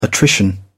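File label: "attrition", British English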